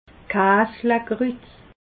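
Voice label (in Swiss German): "kaselkrut", Alsatian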